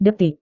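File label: "detik", Indonesian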